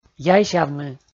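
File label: "яйсявны", Komi, male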